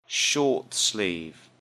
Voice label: "short sleeve", English; Everyday Clothes